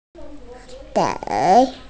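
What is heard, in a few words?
"dei3", Mandarin Chinese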